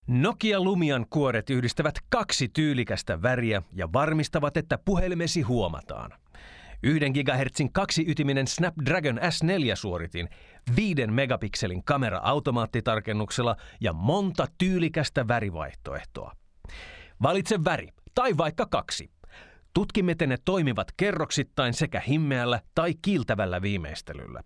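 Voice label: Finnish; Finnish, Scandinavian, Male, 20s-40s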